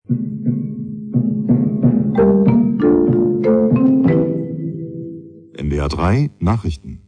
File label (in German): nachrichten, jingles